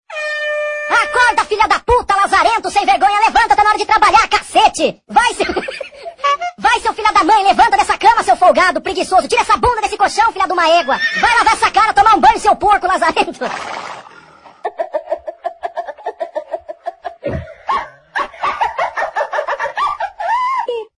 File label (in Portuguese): Acorda Vagabundo, Vinheta